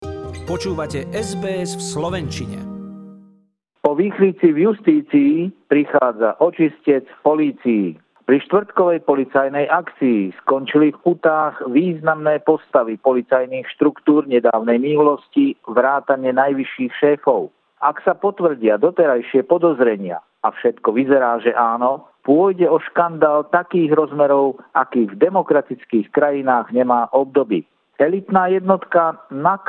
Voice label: slovak; sbs